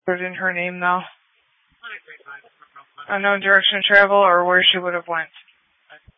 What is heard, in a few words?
Sheriff Dispatch